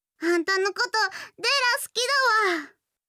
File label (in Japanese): 好きです 愛知; imas; やよい